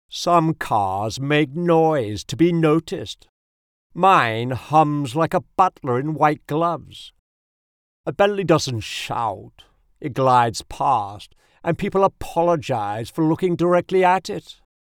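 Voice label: humor, commercial voice over for radio & TV ads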